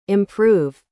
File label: "improve", English